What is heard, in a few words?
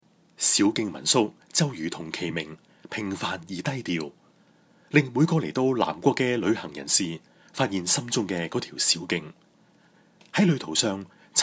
CANTONESE